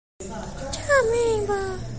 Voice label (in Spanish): nota de voz